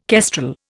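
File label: "kestrel", Indian English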